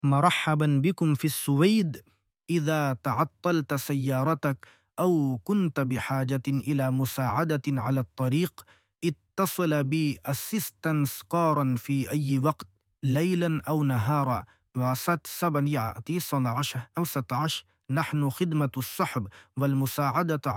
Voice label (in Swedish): Arabisk röst